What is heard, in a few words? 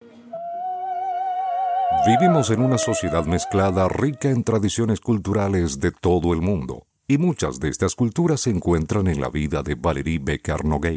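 Latin American Spanish Documentary; GoLocalise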